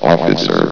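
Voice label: "officer", male synthesized announcer voice; blizzard; hlvox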